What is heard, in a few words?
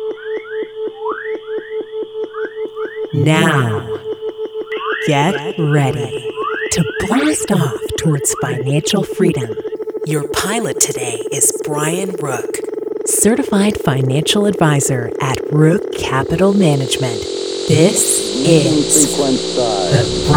Podcast radio intro; Blast off for financial freedom